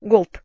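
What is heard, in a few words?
"ghlt", Proto-Indo-European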